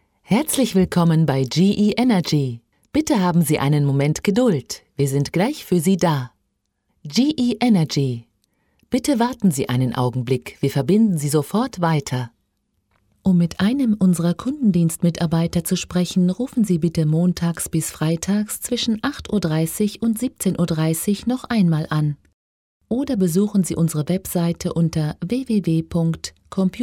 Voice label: Female German IVR